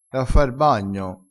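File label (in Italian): "sgroma", vocabolario dialettale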